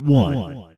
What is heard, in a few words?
voice male; armagetronad